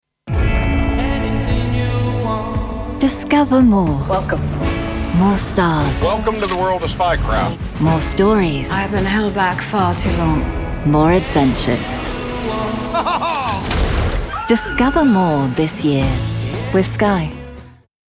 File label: sky natural inspirational